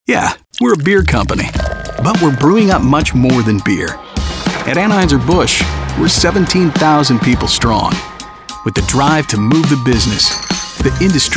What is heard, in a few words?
men corporate